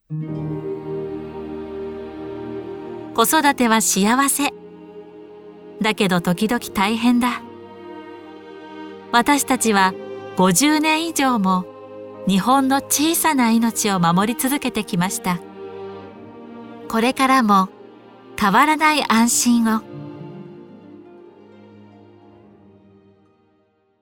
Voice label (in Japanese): ローカル局向け（ゆったり）